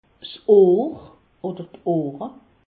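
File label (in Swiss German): "ohr", Alsace, Haut Rhin; medecine; oreilles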